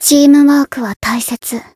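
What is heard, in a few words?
Voice limit break, Japanese; ruina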